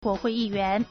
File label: "guo2hui4yi4yuan2", Chinese